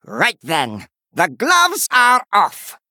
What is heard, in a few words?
Male Murloc Threaten; Finley